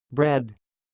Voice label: "bread", English